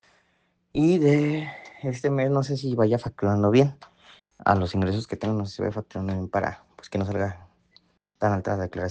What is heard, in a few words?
whatsapp ptt